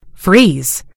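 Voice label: "freeze", British English; gcompris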